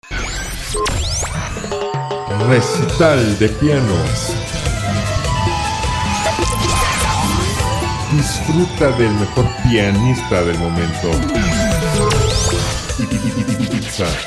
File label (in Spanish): spot radio